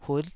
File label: "hut5", Taiwanese syllable